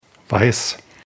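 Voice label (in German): "weiss", German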